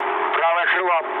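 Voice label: right wing, mood low, Russian; War Thunder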